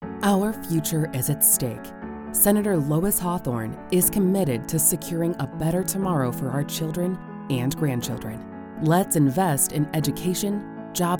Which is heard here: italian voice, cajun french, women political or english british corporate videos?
women political